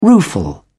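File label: "rueful", English, British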